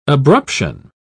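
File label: "abruption", English, British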